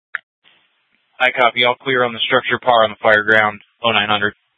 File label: FD Departments VHF 154.800 Patch, scanner; Mesa - Gilbert - AJ